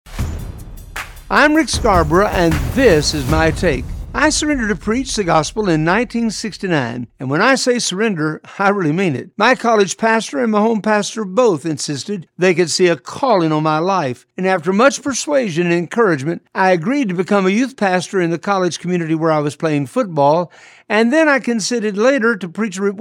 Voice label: radio spot; Close the border now